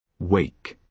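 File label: "wake", British English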